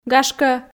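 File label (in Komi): "гашкӧ", Komi, female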